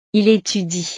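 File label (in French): "il etudie", French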